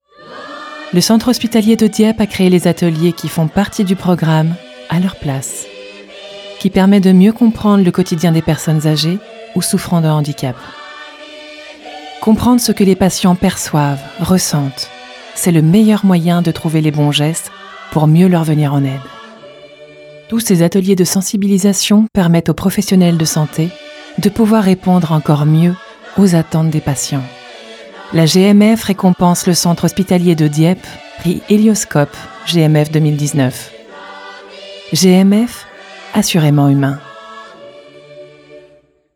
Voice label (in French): Publicite Assurances